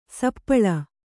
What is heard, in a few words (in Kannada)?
"ಸಪ್ಪಳು", Kannada